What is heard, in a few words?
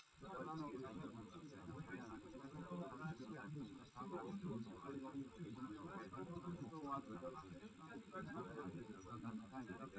male live rec 250ms 1m sim